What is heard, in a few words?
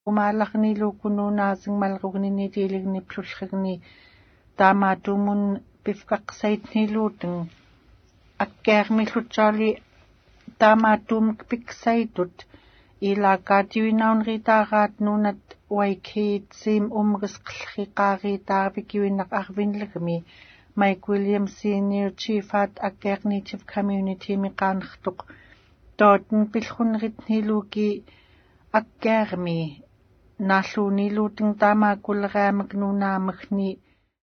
yupik female